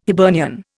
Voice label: "Hibernian", Indian

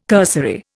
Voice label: "cursory", Indian

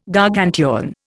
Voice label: "Gargantuan", Indian

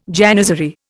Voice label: "janizary", Indian

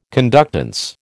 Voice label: "conductance", American

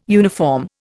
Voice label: "uniform", Indian

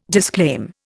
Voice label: "disclaim", Indian

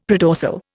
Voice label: "predorsal", Indian; Dictionary

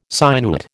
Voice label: "sinuate", American

